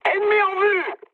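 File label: enemy detected, mood high, French; War Thunder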